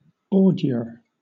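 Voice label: "ordure", British English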